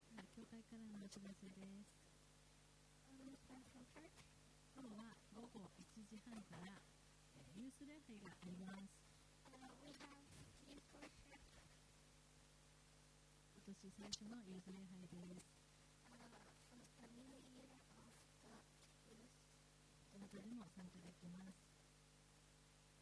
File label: announce; sunday message 2024